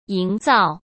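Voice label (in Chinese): "营造", Mandarin Chinese; hsk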